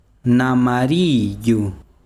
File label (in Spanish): "amarillo", besiro, chiquitano